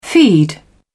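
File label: "feed", British English, dictionary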